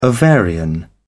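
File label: "ovarian", British English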